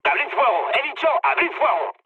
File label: attack position, mood med, speech; War Thunder